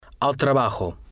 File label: "al trabajo", spanish